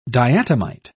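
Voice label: "diatomite", British English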